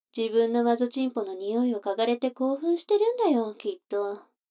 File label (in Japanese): 女の子 台詞, ASMR